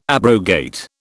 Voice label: "abrogate", British